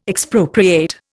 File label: "Expropriate", Indian